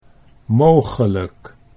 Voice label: "mogelijk", Dutch